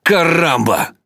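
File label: Russian Speech Quick Commands Caramba; World Of Warships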